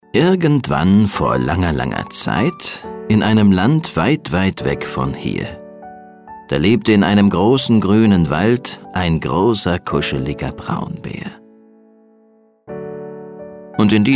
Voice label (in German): erzaehler